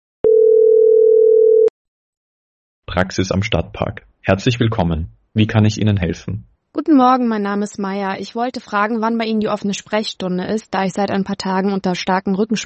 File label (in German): arztpraxis demo call; ki am telefon